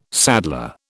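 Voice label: "saddler", British English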